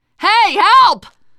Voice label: help, teengirl, voice; player; survivor